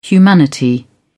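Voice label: "humanity", English, uk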